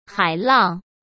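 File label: "海浪", Mandarin Chinese; hsk; chinese flashcards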